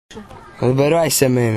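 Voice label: "liberati se", Croatian dialect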